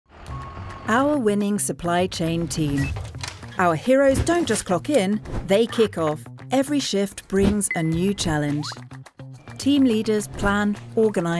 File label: UK; Action Supply Chain